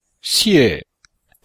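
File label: "xiè", Chinese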